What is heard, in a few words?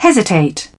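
"Hesitate", British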